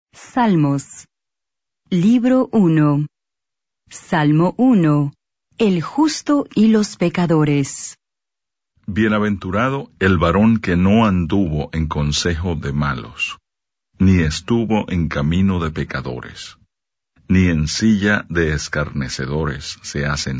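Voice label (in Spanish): reina valera psalm 1, Spanish bible reading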